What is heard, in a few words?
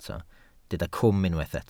"cwm", Welsh